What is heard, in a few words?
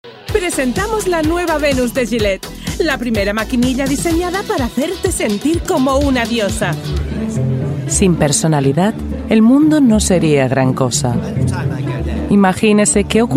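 Spanish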